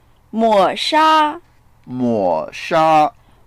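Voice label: "mo3sha1", Mandarin Chinese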